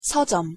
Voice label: "서점", Korean; pronunciation

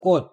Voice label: "꽃", Korean

